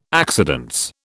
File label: "accidence", British